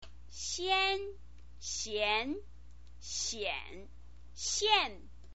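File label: "xian", Mandarin Chinese pinyin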